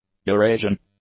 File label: "Eurasian", English; nouns